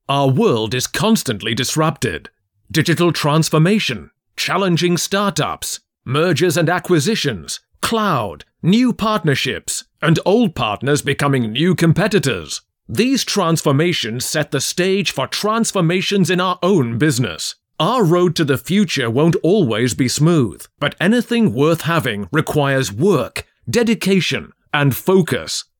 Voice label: English UK Corporate Dynamic